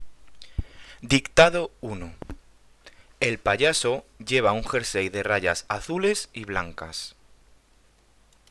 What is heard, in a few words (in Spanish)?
Dictado